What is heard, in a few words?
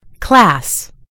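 "class", American English; gcompris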